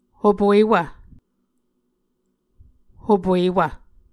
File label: "hopuewv", Muscogee